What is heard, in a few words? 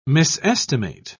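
"misestimate", British English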